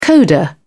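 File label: "coda", English, British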